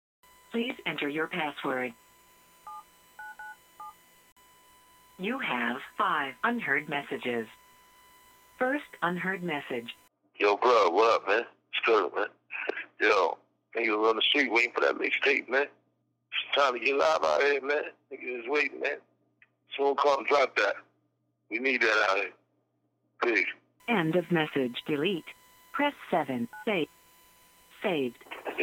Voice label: Voicemail skit